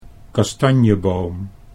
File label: "kastanjeboom", Dutch